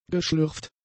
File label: "geschlürft", German; adjectives